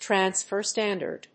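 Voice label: "transfer standard", English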